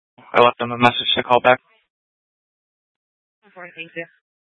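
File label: Tempe police department, scanner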